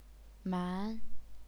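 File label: "man", second tone, chinese pinyin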